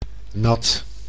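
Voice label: "nat", Dutch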